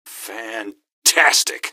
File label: fantastic, gasmask; scmod